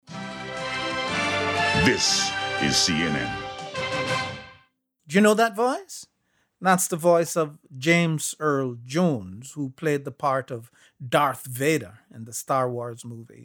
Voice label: Voiceover for animation